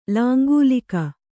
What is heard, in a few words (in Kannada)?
"ಲಾಂಗಲಿಕ", kannada